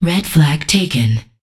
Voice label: Voices Announcer Status

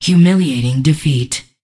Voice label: Voices Announcer Status

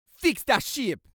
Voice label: Voices Jamaican Fix The Ship

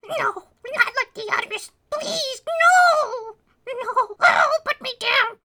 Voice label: Male Beg; a dump in the dark

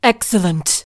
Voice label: "Excellent", Voices; Verdict Guilty

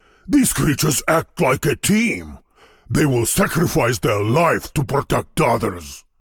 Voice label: Voice Monolog; We Are the Dwarves

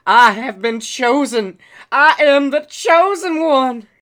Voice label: Male Beggings

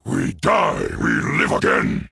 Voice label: Warmonger Barrack Taunt

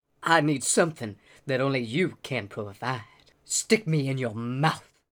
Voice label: Male Beggings; a dump in the dark

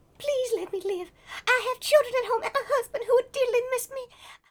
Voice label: Voices Female Beggings; a dump in the dark

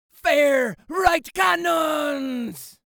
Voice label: Voices Jamaican Fire Right Cannons

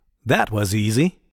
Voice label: Voice Monolog; We Are The Dwarves

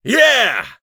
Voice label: Male Fight Appear

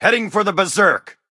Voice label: Voices Character Male; unreal development kit

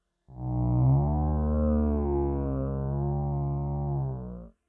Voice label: Dialogue speech; Hylics

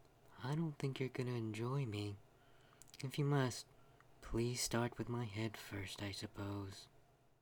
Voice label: Voices Female Beggings

